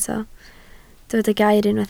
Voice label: "gair", Welsh

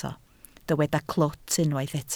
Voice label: "clwt", Welsh

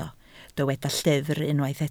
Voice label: "llyfr", Welsh

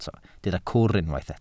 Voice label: "cwr", Welsh

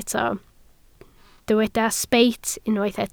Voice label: "sbeit", Welsh

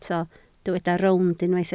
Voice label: "rownd", Welsh

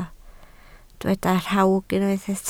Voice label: "rhawg", Welsh

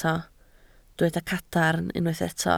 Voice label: "cadarn", Welsh

